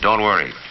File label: "don't worry", announcer; Voice